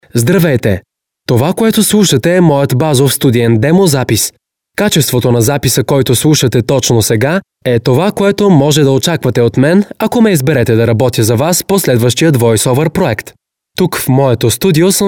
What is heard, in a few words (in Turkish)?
Bulgarca Erkek; seslendirme